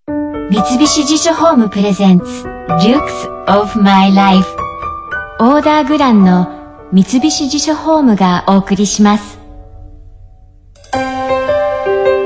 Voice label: Japanese; tokyofm